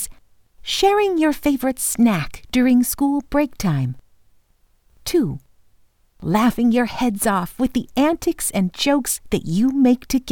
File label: Childrens Learning